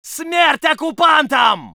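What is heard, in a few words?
voice soviet infantry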